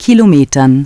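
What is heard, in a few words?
"Kilometern", German; Navigation; DISTEFORA Navigation; Mobile Navigator Notebook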